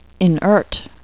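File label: "inert", English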